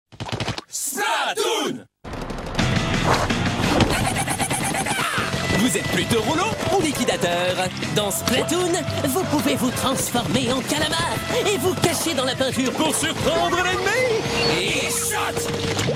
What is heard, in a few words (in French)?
spot tv, demos voix; nintendo splatoon